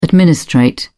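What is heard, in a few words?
"administrate", British English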